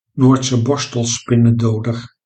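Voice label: "noordse borstelspinnendoder", Dutch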